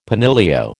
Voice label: "penillio", American

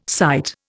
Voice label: "sight", Indian

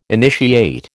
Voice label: "initiate", American

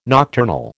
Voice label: "nocturnal", American

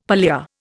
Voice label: "pallia", Indian; Dictionary

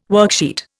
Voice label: "worksheet", Indian

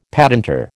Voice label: "patentor", American

